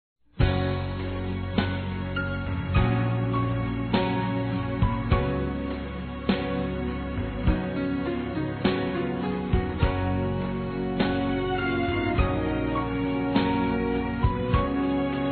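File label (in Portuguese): Namorados pra Amantes, male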